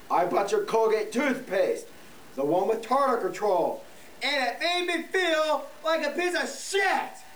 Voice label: Chat